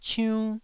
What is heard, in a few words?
"ciunn1", Taiwanese